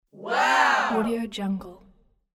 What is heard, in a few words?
teen small group wow